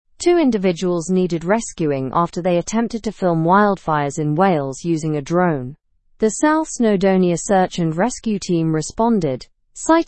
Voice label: english news upper intermediate; wildfire dangers and drone filming in wales